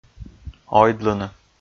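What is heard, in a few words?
"ойдлыны", Komi, male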